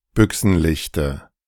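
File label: "Büchsenlichte", German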